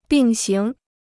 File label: "并行", Chinese